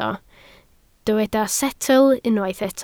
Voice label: "setl", Welsh